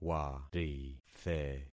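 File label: "warithe", concatenated syllables; Affixing experiment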